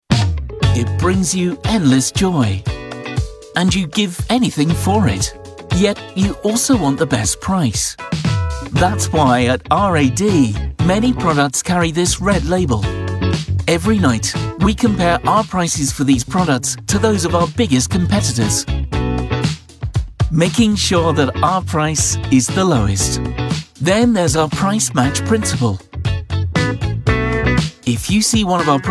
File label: animation